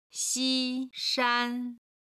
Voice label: "xi1 shan1", Chinese